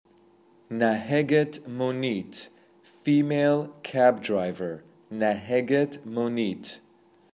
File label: Female Cab Driver